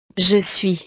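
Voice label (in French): "je suis", French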